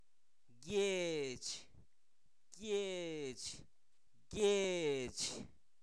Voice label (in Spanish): Zapoteco Albarradas, santo domingo albarradas; pueblo; objetos inanimados